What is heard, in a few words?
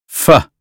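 "fish", American English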